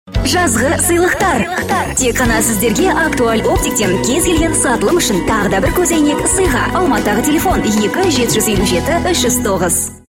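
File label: kazakh female commercial; voice demos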